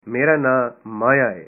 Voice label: punjabi my name is Maya